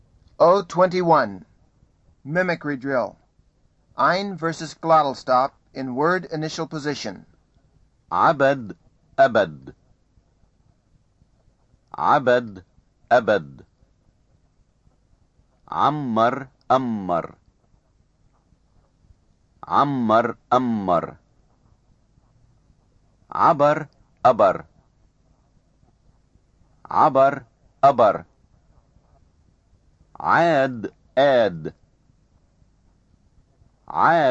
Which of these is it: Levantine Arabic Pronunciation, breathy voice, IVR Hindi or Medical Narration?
Levantine Arabic Pronunciation